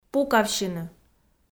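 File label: "пукавсьыны", Komi, female; kpv audio dict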